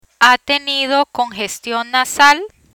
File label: congestion nasal, learn spanish